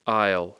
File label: "isle", English; Speech Pro